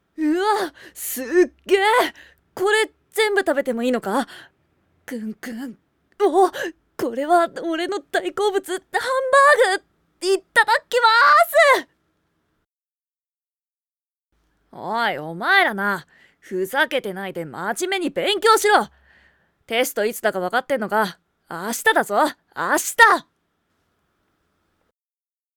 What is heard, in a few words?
male